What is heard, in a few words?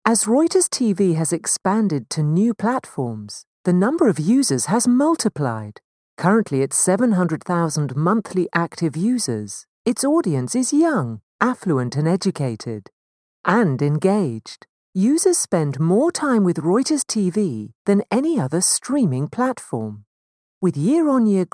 British English Corporate; Reuters